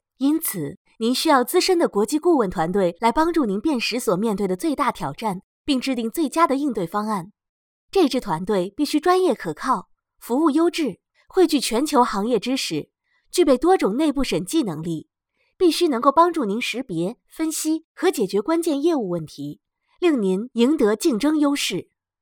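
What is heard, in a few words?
Mandarin, female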